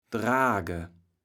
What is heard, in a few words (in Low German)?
"drage", kaevels platt